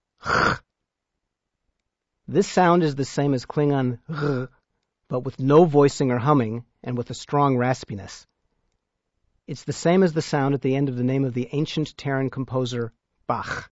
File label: Klingon sounds H, Letter H; Upper case!